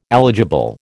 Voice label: "eligible", American

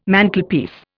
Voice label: "Mantelpiece", Indian

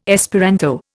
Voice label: "Esperanto", Indian

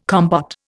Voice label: "compote", Indian

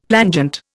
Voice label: "plangent", Indian; Dictionary

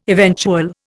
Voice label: "eventual", Indian

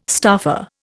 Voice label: "staffer", Indian

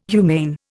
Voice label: "humane", Indian

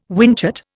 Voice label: "whinchat", Indian

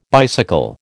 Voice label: "bicycle", American

